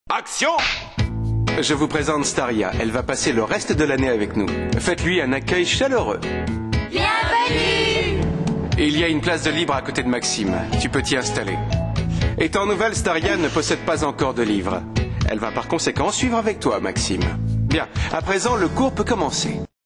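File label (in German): werbung, männer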